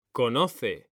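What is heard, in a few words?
"CONOCE", Spanish, male; mekanta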